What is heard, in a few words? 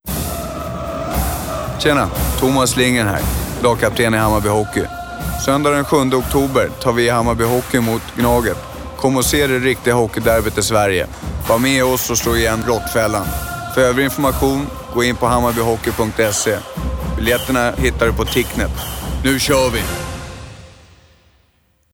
radio